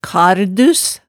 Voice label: "kardus", hemsedalsmaalet tale

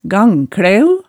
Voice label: "gangklæu", hemsedalsmaalet

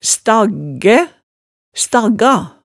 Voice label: "staggæ", hemsedalsmaalet

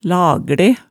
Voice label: "lagle", hemsedalsmaalet tale

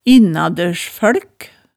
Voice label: "innadørsfølk", hemsedalsmaalet

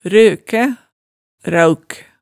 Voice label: "røkæ", hemsedalsmaalet, tale

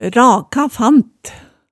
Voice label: "raka fant", Hemsedal

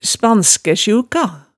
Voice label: "spanskæsjuka", hemsedalsmaalet

